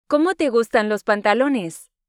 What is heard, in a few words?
Spanish Listening; Spanish Test